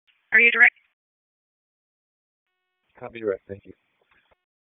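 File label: Police District Dispatch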